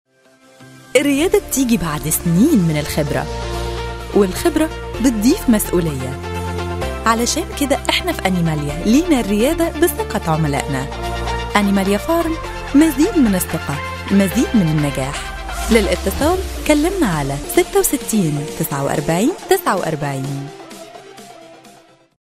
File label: mina ar